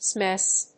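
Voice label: "SMS", English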